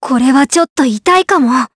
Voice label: Vox Skill jp; Leo; kingsraid; voices heroes jp